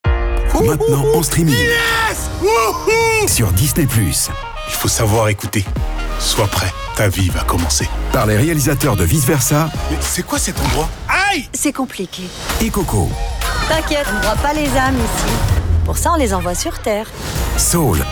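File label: movie trailer voice-over warm enthusiastic smile; disney soul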